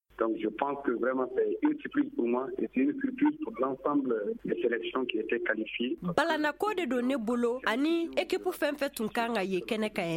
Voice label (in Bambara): magazine bamanankan